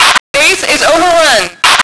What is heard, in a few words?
fem overrun, radio; lmctf